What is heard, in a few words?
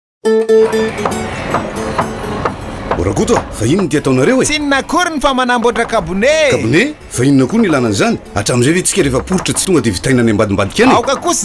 spots radio; fikrifama; gret; inter aide; medair; protos